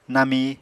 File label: "nami", Apatani